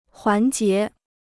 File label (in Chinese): "环节", Chinese